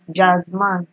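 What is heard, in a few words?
"jazzman", Nigerian Pidgin, female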